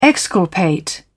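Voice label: "exculpate", British English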